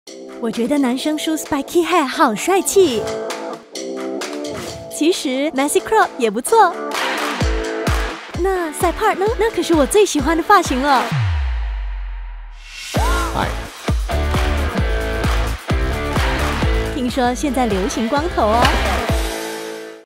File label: Mandarin Announcer, Style: Confident, Engaging & Energetic; Redoxon